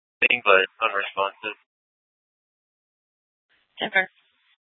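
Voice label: police dispatch, Scottsdale PD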